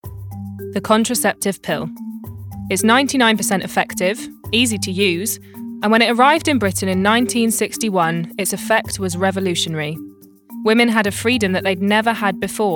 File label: Documentary; The Pill